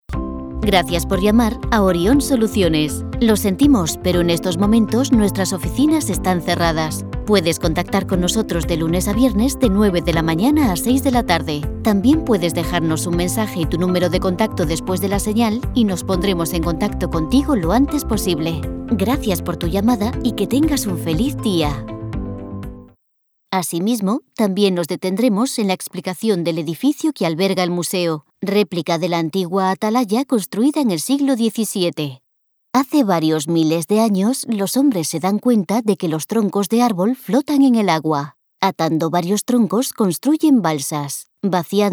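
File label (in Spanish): generico España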